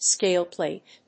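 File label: "scale plate", English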